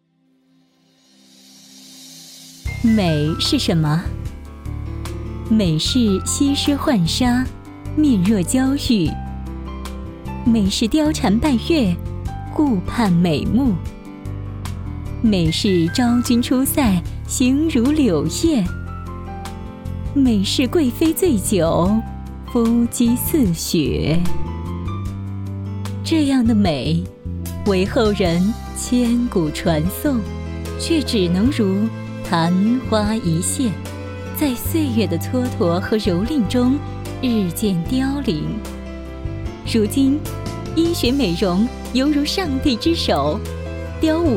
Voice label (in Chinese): 女, 专题; 美是什么